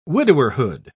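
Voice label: "widowerhood", British English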